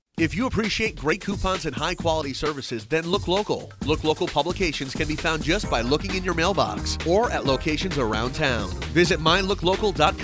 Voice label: Radio Commercial Long